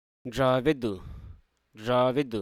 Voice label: "amiable"

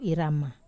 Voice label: "rama", Wamesa

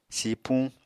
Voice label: "sipuñ", Apatani

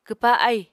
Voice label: "kwpa ahì", Apatani

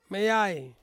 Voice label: "meiai", to dance, Ansus

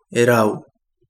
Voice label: two hundred, Kapingamarangi

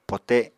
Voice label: "pote", Apatani

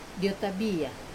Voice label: "otabia", pull, Wamesa

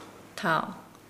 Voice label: Nukuoro; spear

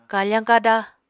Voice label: "kafañ kada", Apatani

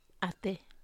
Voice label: "ate ii", Wamesa

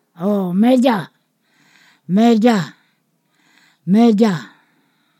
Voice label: "table", Ponosakan

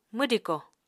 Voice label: "mwdiko", Apatani

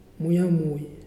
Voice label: "hot", Muyuw; Lae Unitech

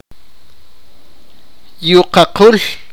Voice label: sipakapense; goose

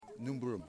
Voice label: "Leaf", Yimas

